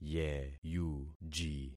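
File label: "yeyuji", concatenated syllables; Affixing experiment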